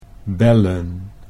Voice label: "bellen", Dutch

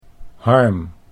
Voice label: "Harm", Dutch

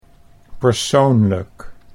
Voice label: "persoonlijk", Dutch

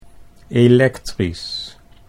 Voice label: "elektrisch", Dutch

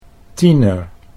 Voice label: "tiener", Dutch

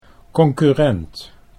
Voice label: "concurrent", Dutch

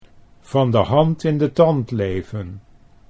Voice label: "van de hand in de tand", Dutch; Van de hand in de tand leven; 'Living on very little money, without a steady source of income'

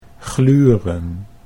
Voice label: "gluren", Dutch

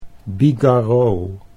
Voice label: "bigarreaux", Dutch

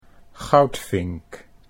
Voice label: "goudvink", Dutch

